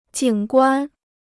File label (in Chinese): "景观", Chinese